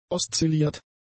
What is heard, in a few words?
"oszilliert", German; adjectives